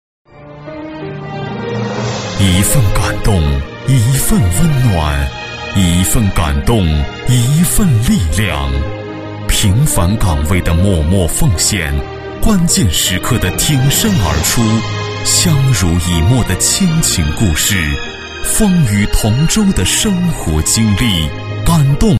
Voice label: 男3-颁奖-感动, 国语男声配音

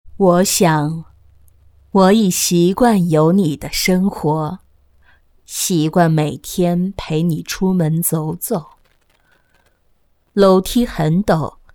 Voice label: 女 人物模仿 老太太, 国语女声配音; 微电影; 我已习惯有你的生活

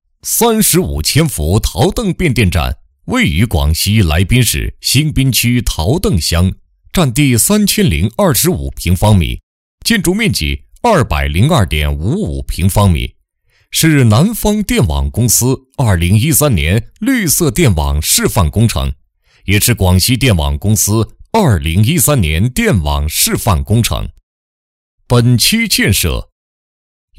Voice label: 男100-工程类-变电站介绍, 国语男声配音

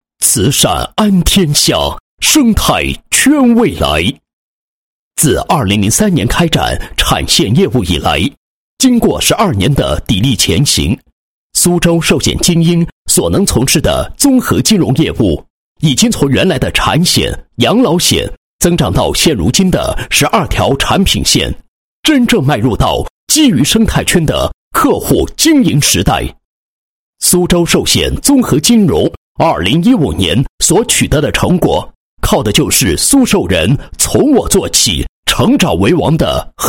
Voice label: 男 解说; 慈善 综合金融业务

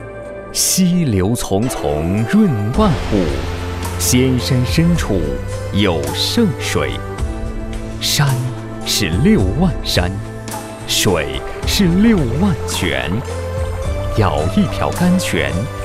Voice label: 男, 专题, 浪漫优雅; 绿色广西旅游专题

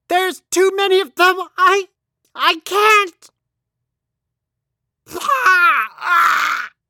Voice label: 外籍 电影视频游戏角色 Characters

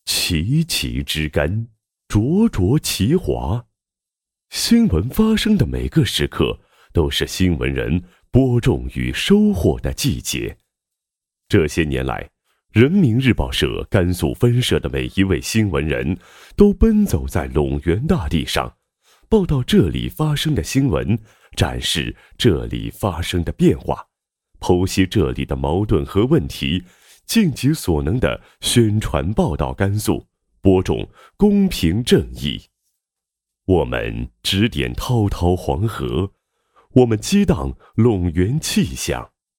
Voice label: 男 解说 新闻报道; 人民日报社甘肃分社走遍陇原

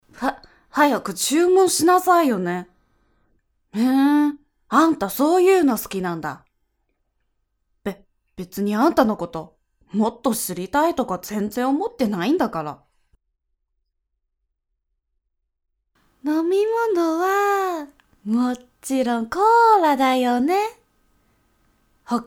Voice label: 日语女 傲娇病娇女仆萝莉

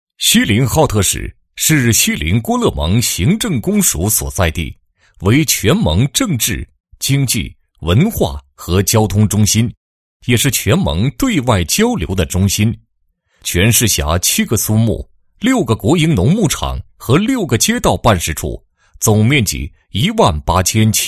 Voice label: 男 工作纪实, 公安消防大队农牧区消防站建设巡礼

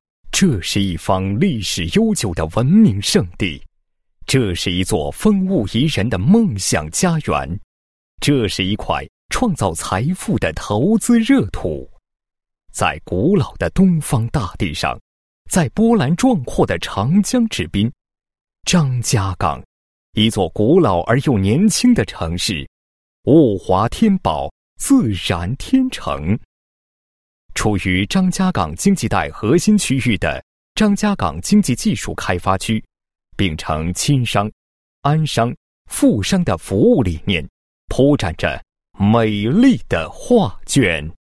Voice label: 男 企业宣传, 国语男声配音 磁性; 科创园

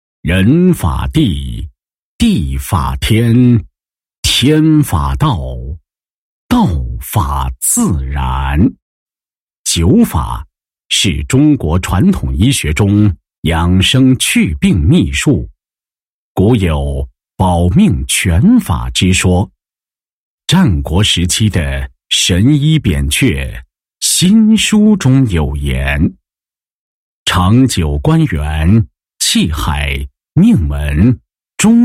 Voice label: 男 企业宣传, 艾灸科技有限公司宣传; 仁成

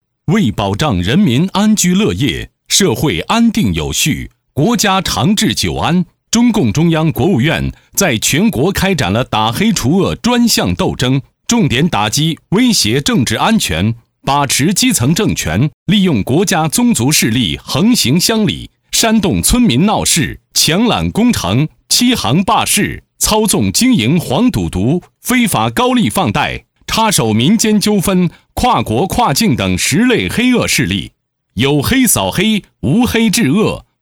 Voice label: 男 扫黑除恶宣传配音

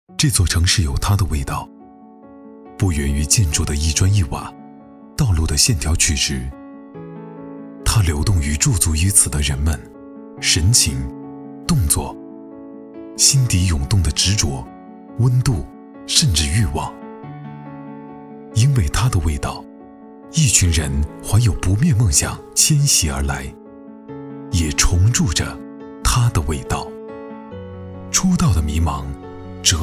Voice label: 男 专题 城市宣传片, 暖声; 国语男声配音; 暖声录音

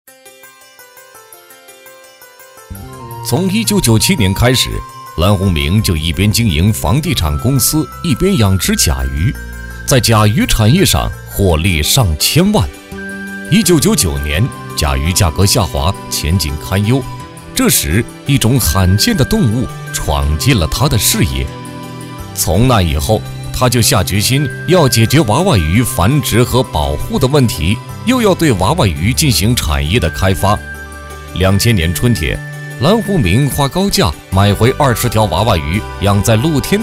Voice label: 男 专题 类似致富经; 甲鱼养殖

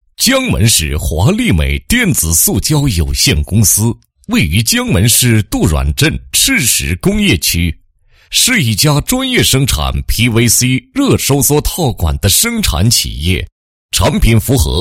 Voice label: 男 广告 产品介绍广告; 华力美

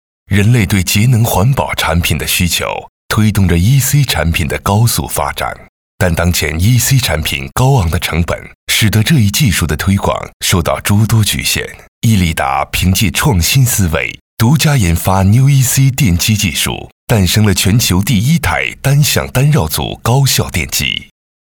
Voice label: 男 专题 产品宣传, 国语男声配音

